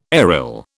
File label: "aryl", British